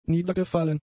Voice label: "niedergefallen", German; adjectives